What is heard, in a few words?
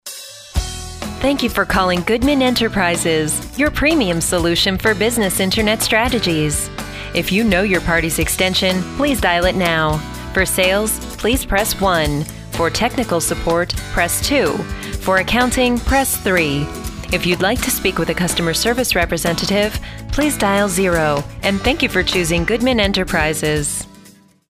female, onhold message